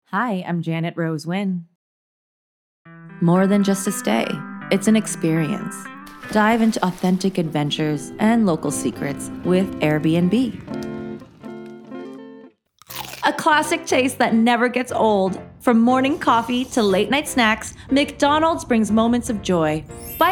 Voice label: Commercial VO